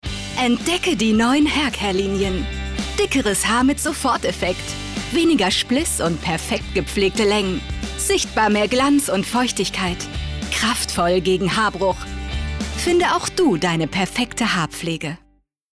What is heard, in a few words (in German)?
Werbung Beauty; voice